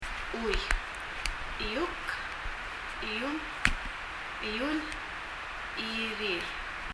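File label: "chui" Palauan, hair; 髪・hair; 身体の各部位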